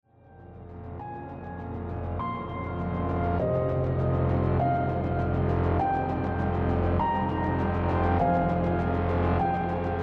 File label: narration